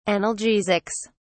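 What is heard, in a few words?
"analgesics", British English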